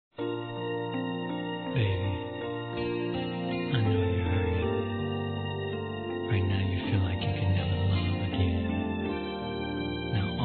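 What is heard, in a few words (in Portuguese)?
Namorados Erotica Suave, female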